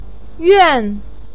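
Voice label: "yuan", Mandarin pinyin, fourth tone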